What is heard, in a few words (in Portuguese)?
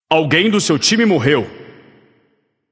notify slain ally, vox caster portuguese